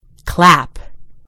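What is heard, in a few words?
"clap", American English; gcompris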